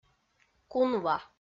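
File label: "кунва", Komi, female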